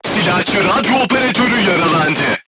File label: voice message machine gunner wounded, crew tanks turkish